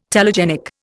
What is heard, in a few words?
"telegenic", Indian